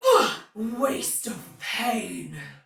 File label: Damage Low Chance, Soldier Female, Battle; Dialog; Barks; Sinecure